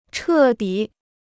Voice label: "彻底", Chinese